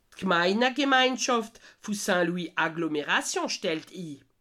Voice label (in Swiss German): "gmeina", alsace